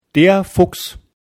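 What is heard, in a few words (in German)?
"fuchs", German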